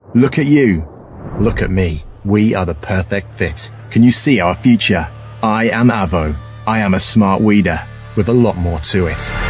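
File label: Motivational Movie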